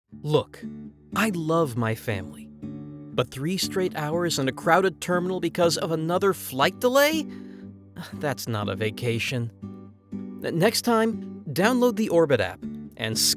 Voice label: commercial